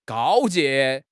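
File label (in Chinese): "告诫", Chinese; HSK; HSK6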